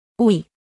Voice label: Phoneme Female; Commonscript; Lynguistykon